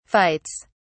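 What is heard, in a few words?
"fights", English, British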